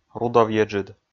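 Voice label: "рудовъеджыд", Komi, male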